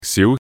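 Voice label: "siu2", Cantonese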